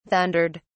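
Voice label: "thundered", British English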